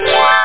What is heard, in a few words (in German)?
ankuendigung